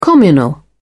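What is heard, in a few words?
"communal", British English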